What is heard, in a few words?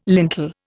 "lintel", Indian